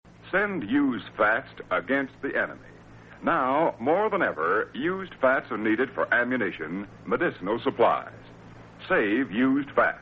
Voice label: psa; ww2 promos and commercials; save used fat